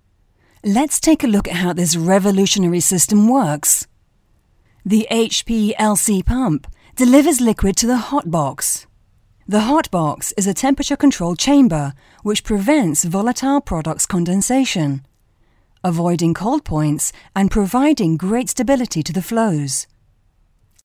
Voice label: english british female voiceover locutora inglesa; voix off